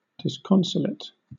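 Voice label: "disconsolate", British English; pronunciations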